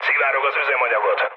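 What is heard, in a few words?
Hungarian tank damaged mood low, speech; War Thunder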